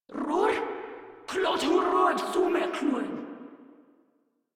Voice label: Patrol Grineer Female; Warframe